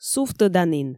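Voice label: "сувтӧданін", Komi, female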